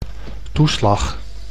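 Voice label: "toeslag", Dutch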